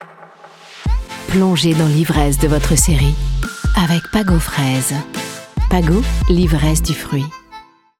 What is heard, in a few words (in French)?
voix off sensuelle; Pago; TVIN; SERIE FRAISE